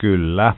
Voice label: "Yes", es, Adult, Male

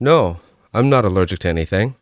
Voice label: No allergies, Adult Male Speech

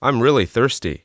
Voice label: I'm really thirsty, adult male speech

